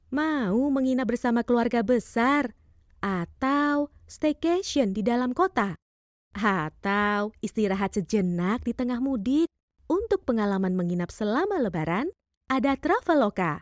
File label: CASUAL INDONESIA